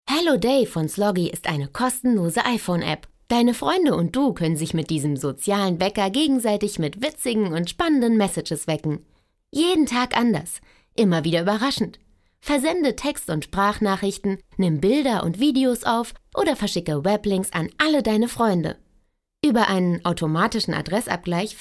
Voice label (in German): erzaehlung